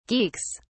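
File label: "geeks", British English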